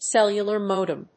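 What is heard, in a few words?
"cellular modem", English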